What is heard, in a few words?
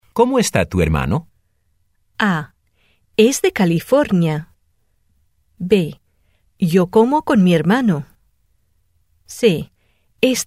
spanish rejoinder; clep